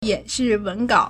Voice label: "yǎnshì wéngǎo", Chinese; 演示文稿; set phrase; presentation; slide deck; Powerpoint slides